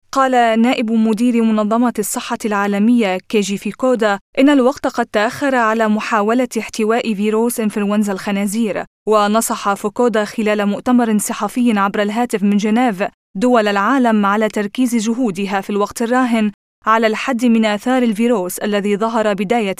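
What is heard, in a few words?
Arabic; GoLocalise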